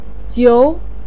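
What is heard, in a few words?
"jiu" second tone, Mandarin Chinese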